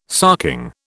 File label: "sarking", British